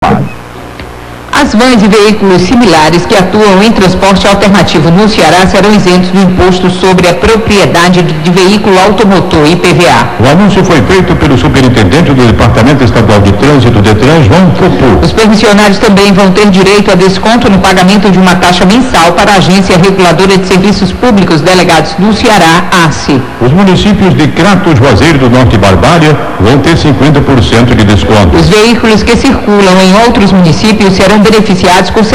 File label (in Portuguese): radio noticias; radio verdes mares am